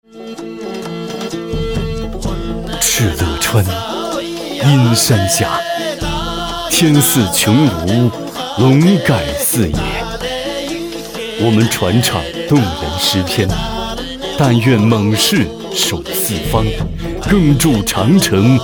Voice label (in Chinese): 男 品质广告